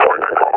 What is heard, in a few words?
retreat, combine officer vo; Half Life Alyx; Half Life Model Pack